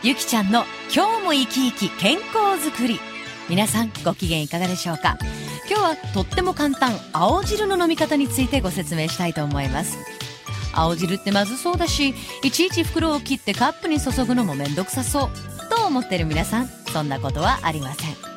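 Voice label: commercial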